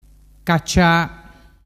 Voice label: "cacia", dialettu

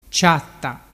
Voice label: "ciatta", dialettu

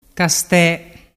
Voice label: "caste", dialettu

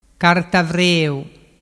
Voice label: "cartavreu", dialettu

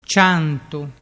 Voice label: "ciantu", dialettu

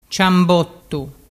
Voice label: "ciambottu", dialettu

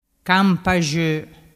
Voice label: "campaxo", dialettu

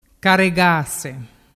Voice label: "caregase", dialettu

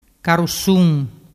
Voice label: "carusun", dialettu

